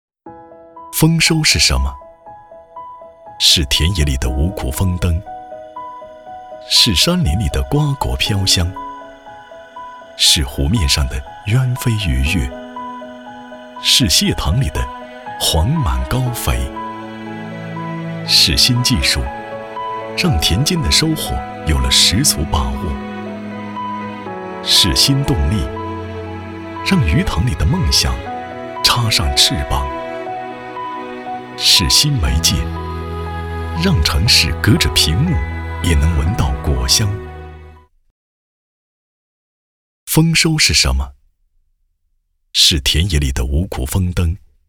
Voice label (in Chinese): 男, 走心情感类; 丰收